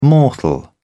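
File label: "mortal", British English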